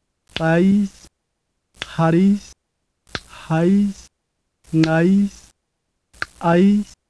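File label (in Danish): nama, palatal, clicks, alle ordene har højtone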